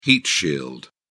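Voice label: "heat shield", British English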